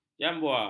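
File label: "jambua", Malay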